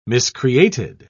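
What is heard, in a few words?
"miscreated", British English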